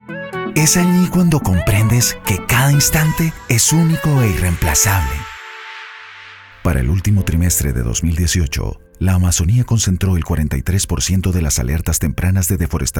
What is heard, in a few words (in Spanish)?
VOZ COMERCIAL